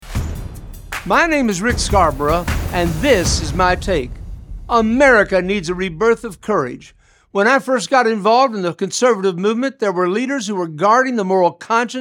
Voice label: Radio Spot